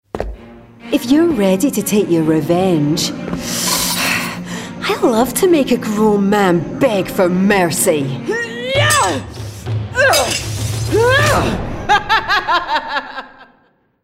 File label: Gaming Scottish Assassin